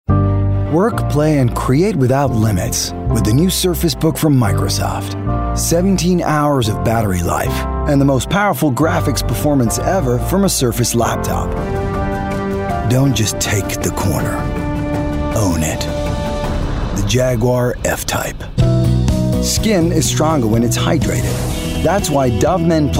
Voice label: Commercial US; Showreel

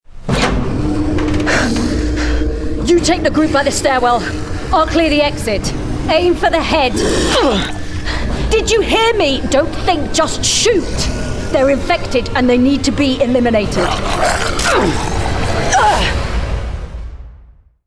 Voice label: Gaming Military Officer Natural Voice; Gaming Character – Military Officer Natural

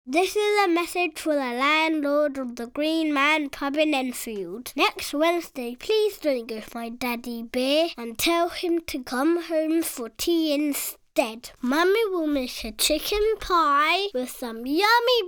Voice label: PUB Ad; BISTO

